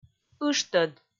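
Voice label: "ыштӧд", Komi, female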